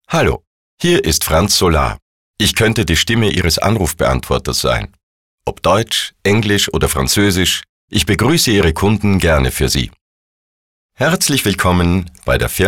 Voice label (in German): Sprecher Telefonansagen; männliche Sprecher; Werbestimmen und Dialekte